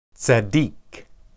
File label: "tsakiq", Hebrew